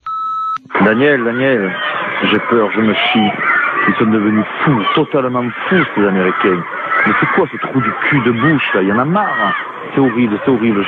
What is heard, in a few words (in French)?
Irak repondeur